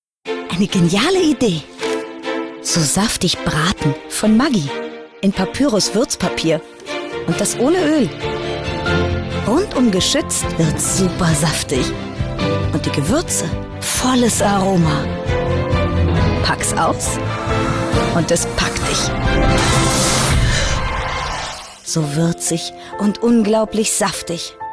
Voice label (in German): werbung; Hamburg; Muttersprache Deutsch; maggi